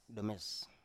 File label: "nomes", Biak